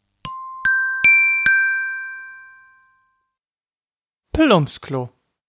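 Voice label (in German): "Plumpsklo", German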